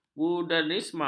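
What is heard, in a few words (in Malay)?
"buddhisme", Malay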